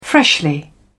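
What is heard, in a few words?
"freshly", British English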